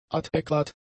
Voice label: "adäquat", german; adjectives